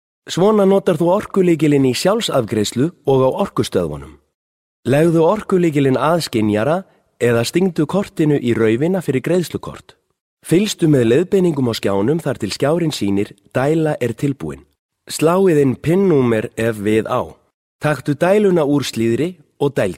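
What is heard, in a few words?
Icelandic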